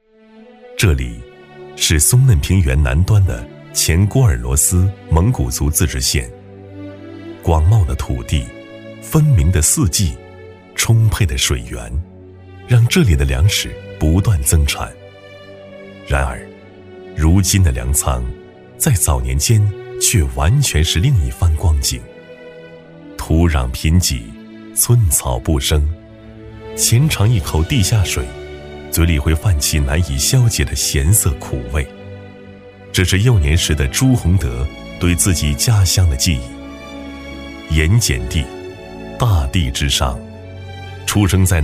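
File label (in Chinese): 男【纪录片】; 郭尔罗斯蒙古族自治县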